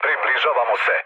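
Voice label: Serbian near waypoint mood med; War Thunder; speech srb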